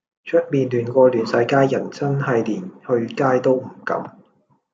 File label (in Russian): Голоса, Гонконгский